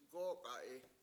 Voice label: "long", Washo

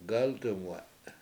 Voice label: to dive, Washo; gAltumwe7

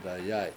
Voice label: "dayAy7" leaves, Washo

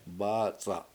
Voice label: "bAAc7a7", Washo; to skin

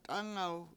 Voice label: "t Anaw", Washo; good

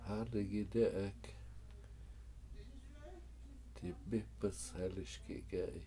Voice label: I Could Lift That Rock, Washo; hAdigi dE7ek dibIp1sheliSgi k7E7i

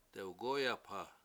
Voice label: "dewgOyapha" hole tunnel, Washo

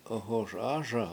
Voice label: "OhoS", Washo; ASa7 in the hat

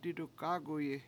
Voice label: "dIdugAguyi7", Washo; I don$t know how

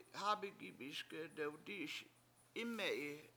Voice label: tree drinks water, Washo; hAbi7 gibiSge7 dewdI7iS Ime7i

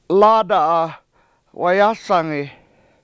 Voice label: In My Bedroom It Is Hot, washo; lAAda7a wayAsangi

